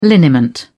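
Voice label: "liniment", British English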